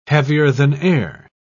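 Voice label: "heavier-than-air", British English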